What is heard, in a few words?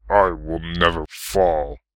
"I Will Never Fall", human male heroic; customnpcs